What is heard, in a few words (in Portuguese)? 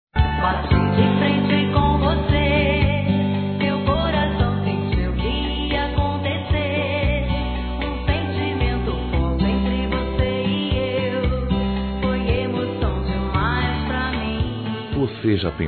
Trilha OTIMISMO M, Telemensagens, Gospel, masc